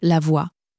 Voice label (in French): "voie", French